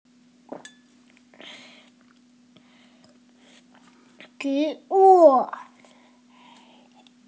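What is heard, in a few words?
"li3 wu4", Mandarin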